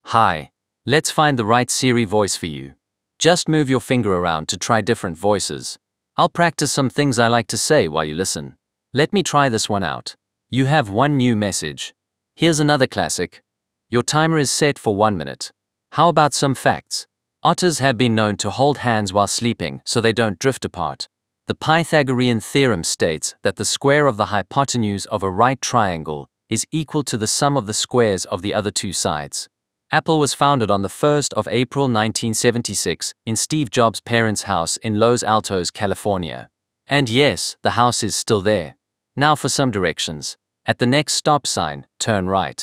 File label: en-ZA; iOS 26